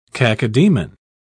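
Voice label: "cacodaemon", British English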